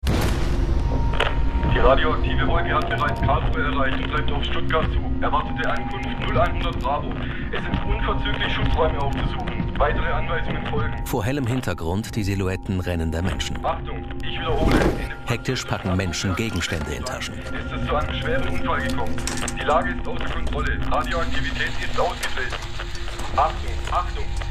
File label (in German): audiodeskription